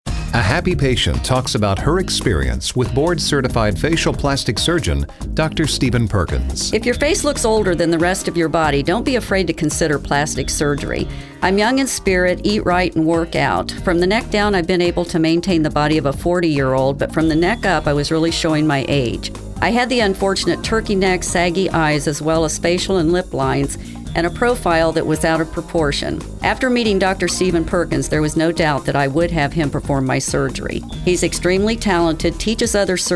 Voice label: testimonial Female; radio spots testimonials